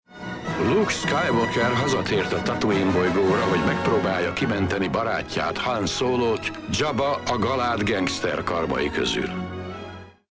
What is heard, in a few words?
narrator; rotj; szinkron